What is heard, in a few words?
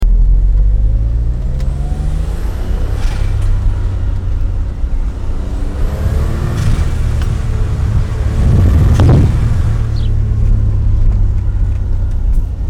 chatter